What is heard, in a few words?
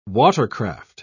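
"watercraft", British English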